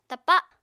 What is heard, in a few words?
"tapa", Apatani